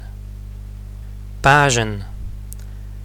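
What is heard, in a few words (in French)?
page pajenn, apprenons le breton ensemble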